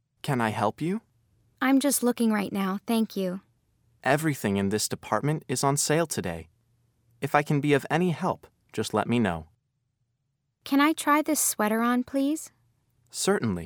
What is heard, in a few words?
Going Shopping Dialogue Reading